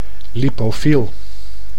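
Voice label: "lipofiel", Dutch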